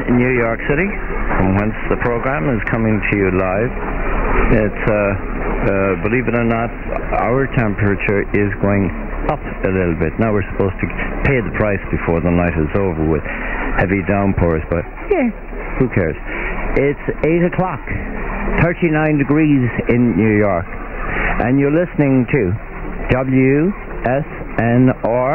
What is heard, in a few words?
irish wrong call; 1510 wwzn; irish presenter